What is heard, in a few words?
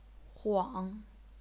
"huang3", chinese pinyin